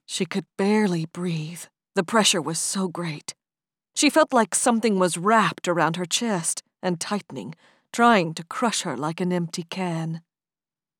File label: Thriller, audiobook